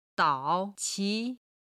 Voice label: "dao3 qi2", Chinese